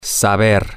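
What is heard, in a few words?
to know how, spanish, male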